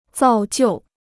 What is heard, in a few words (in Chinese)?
"造就", Chinese